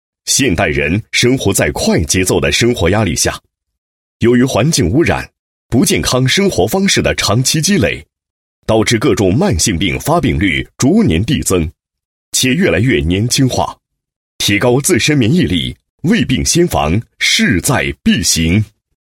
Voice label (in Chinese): 男, 品牌广告; 老来寿牌蛹虫草